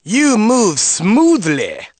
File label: "You Move Smoothly", announcer; gameplay comment good